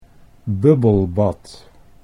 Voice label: "bubbelbad", Dutch